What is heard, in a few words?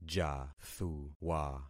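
"jathuwa", concatenated syllables; Affixing experiment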